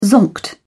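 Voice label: "zonked", British English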